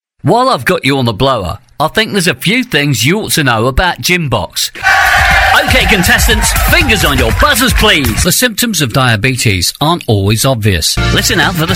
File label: voice over